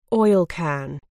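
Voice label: "oilcan", British English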